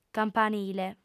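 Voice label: "campanile", female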